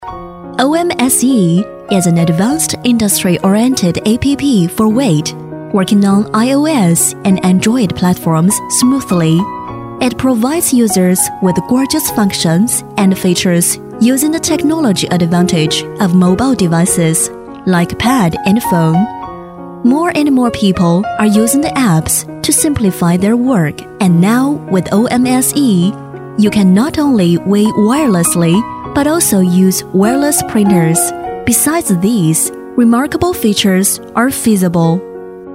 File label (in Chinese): 英文专题